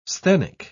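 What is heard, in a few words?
"sthenic", British English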